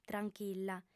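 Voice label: "tranchilla", Sardinian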